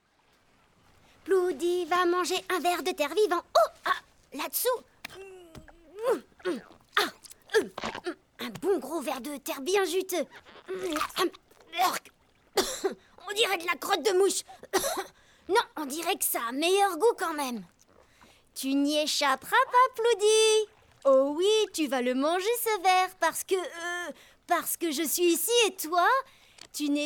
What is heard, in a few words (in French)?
Voix Dessin anime; Ploddy